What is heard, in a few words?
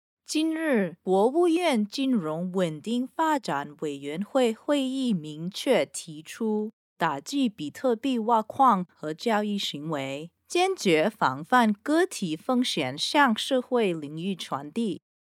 female chinese voice over narrative, mandarin